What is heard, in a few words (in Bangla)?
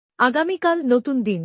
Tomorrow is a new day. Bangla; Time and Routine